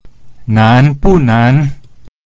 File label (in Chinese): nan2bu4nan2, Chinese; difficult-not-difficult